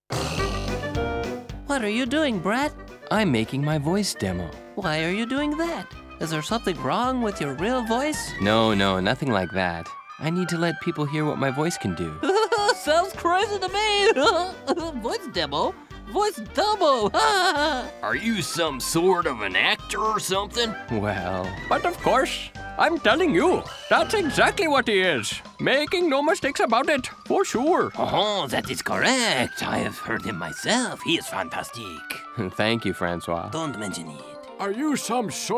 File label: Charactor Voices